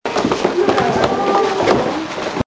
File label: CLIP WITH STRANGE VOICE